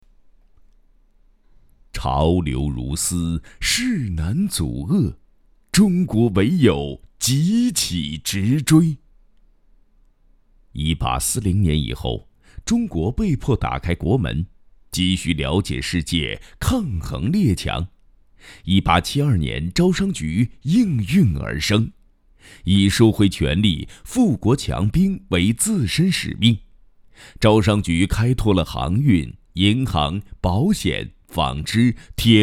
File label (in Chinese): 男, 纪实类纪录片; 招商仁和